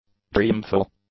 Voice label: "dreamful", English; adjectives

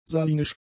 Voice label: "salinisch", german; adjectives

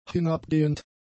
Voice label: "hinabgehend", German; adjectives

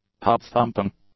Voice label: "tub-thumping", English; adjectives

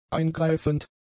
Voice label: "eingreifend", German; adjectives

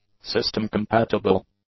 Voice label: "system-compatible", English; adjectives

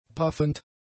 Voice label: "paffend", German; adjectives

